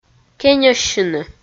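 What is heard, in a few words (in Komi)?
"кеньӧссьыны", Komi, female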